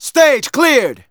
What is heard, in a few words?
Narration; Ollie King